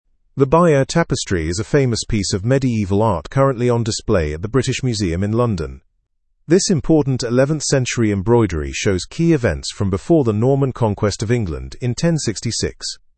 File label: english through news upper intermediate; bayeux tapestry makes historic london visit